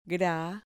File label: "gra", Spanish